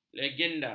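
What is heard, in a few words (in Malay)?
"legenda", Malay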